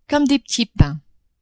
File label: "comme des petits pains", French; adverbs